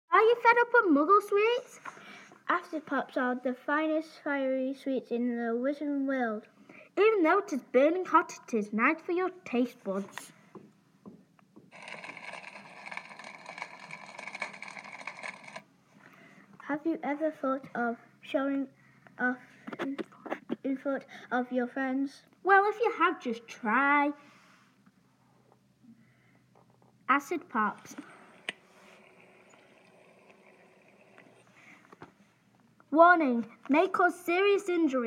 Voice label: radio advert

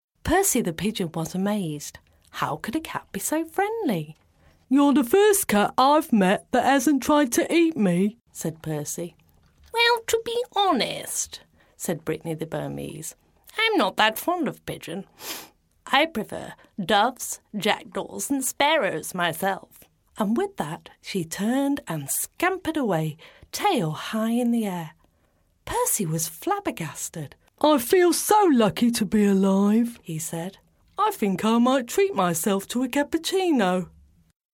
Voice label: voiceover children's story

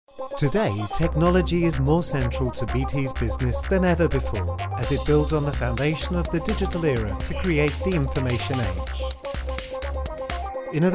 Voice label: corporate

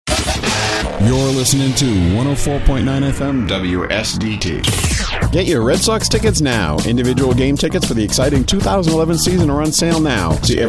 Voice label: commercial; wsdt; red sox ticket

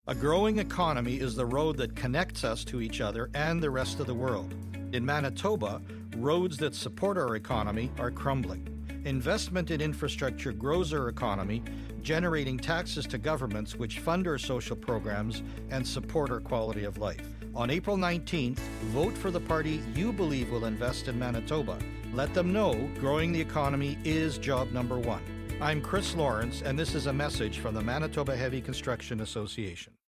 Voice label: radio ad

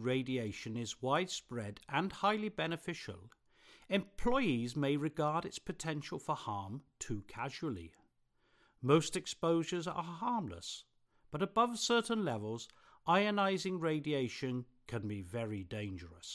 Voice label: medical system video voice over

